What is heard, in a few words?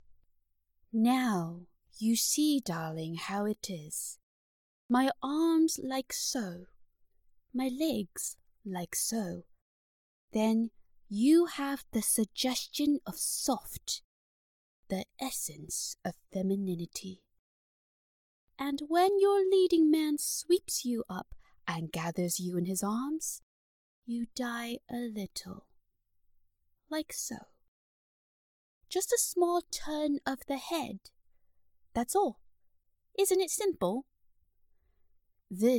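Chinese accented English; GoLocalise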